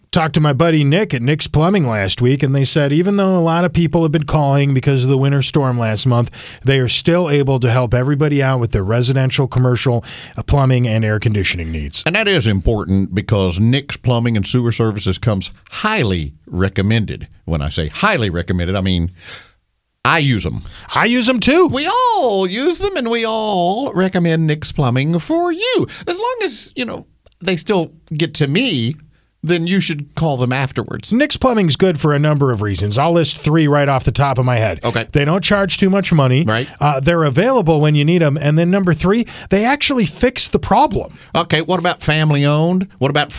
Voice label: RADIO AD; nicks plumbing radio ads